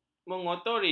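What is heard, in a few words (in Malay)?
"mengotori", Malay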